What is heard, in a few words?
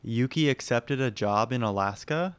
confused